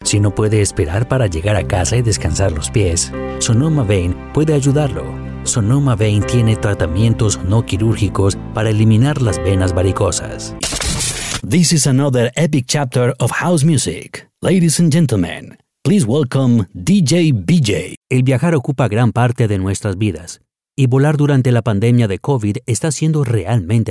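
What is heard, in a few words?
Spanish Latin American, male, voice reel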